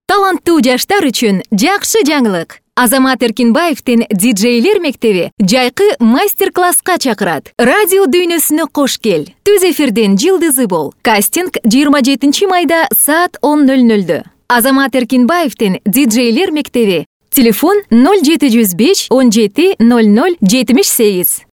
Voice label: Kirgizca Kadin

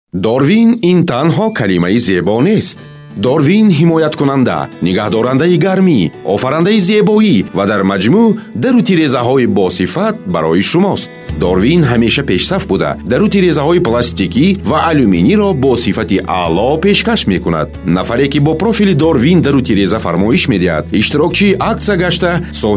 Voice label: Tacikce Erkek, tacikce seslendirme; erkek sesler

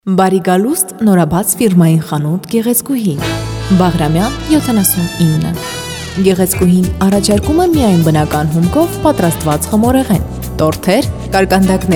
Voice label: Ermenice Kadin; seslendirme

